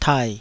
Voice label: "tai3", taiwanese Syllables

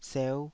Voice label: "seu5", taiwanese Syllables

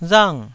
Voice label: "rang4", taiwanese

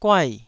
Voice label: "guai4", taiwanese

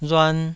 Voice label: "ruan2", Taiwanese

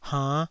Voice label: "hainn5", taiwanese

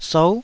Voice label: "sou9", Taiwanese syllable

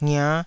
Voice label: "ngia5", Taiwanese syllable

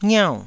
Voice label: "ngiau4", taiwanese syllables

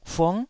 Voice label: "fung9", Taiwanese

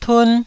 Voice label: "tun1", taiwanese Syllables

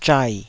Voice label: "zhai3", Taiwanese syllable

